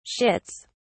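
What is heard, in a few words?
"shits", British English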